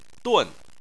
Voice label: "dun4", Chinese